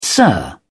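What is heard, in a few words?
"sir", British English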